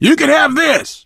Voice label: throw items, survivor voice biker; finale l4d1; Left 4 Dead 2